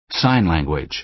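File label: "sign language", American English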